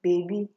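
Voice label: "baby", Nigerian Pidgin, female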